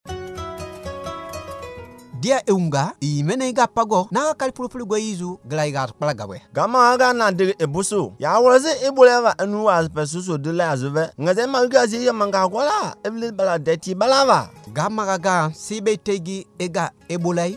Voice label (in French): SPOT EBOLA 2 HOMMES TOMA